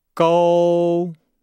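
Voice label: "gou", Chinese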